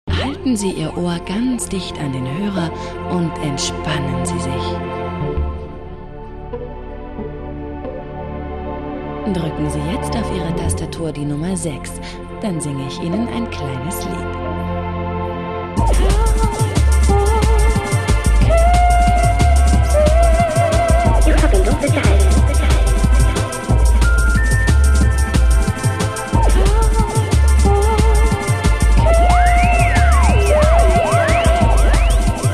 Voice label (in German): sprache gesang; tatort